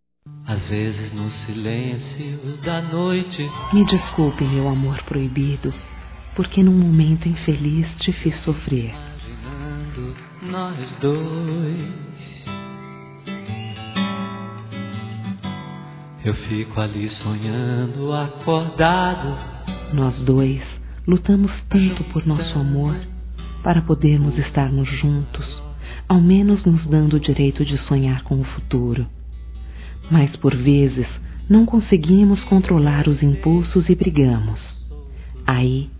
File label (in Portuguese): Desculpas Amante Femin, Telemensagens; Telemensagens 2017